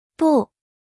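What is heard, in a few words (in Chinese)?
"不", Chinese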